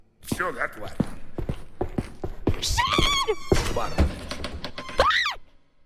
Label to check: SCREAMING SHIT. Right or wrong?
Right